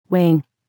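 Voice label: "wing", English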